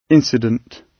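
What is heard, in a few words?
"incident", British English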